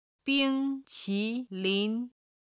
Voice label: "bing1qi2lin2", chinese